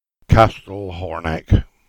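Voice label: "Castle Horneck" Cornish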